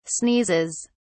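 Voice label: "sneezes", British English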